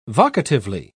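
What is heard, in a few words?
"vocatively", British English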